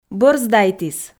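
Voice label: "бӧр сдайтіс", Komi, female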